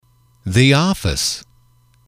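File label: the office, male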